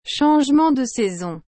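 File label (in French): "changement de saisons", French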